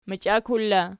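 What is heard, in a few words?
"machiakul" locative, Archi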